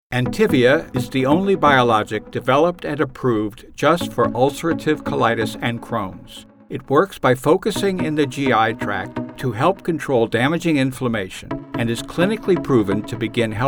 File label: NARR